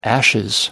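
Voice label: "ashes", English, British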